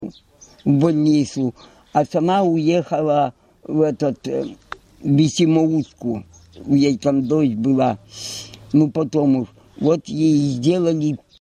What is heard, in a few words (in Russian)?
dialekt